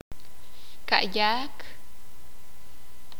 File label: sakapulteko; dos veces